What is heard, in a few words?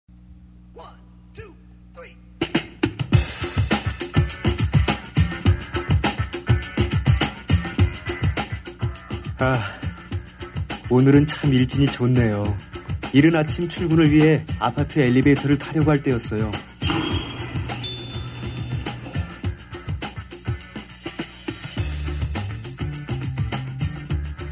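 Korean, male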